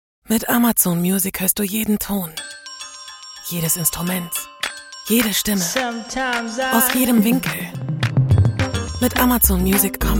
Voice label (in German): Werbung; Amzon Music